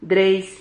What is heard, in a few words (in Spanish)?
"dreis", Silabas